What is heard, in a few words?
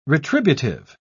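"retributive", British English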